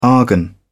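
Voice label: "argon", British English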